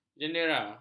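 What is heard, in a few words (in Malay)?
"jendera", Malay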